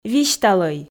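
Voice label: "висьталӧй", Komi, female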